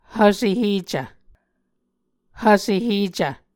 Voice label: "hvse hecv", Muscogee